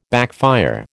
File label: "backfire", American